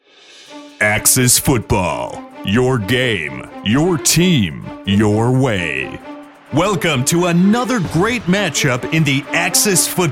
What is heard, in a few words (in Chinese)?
浑厚深度旁白男